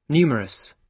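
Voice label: "numerous", British English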